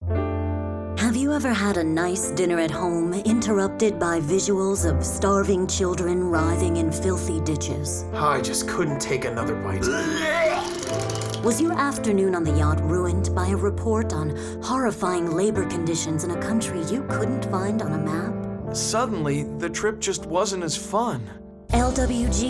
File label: (Commercial); Liberal White Guilt Foundation